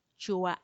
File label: "chua cuarenta", forty